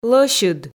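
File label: "лӧсьыд", Komi, female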